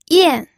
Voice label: "yan4", pinyin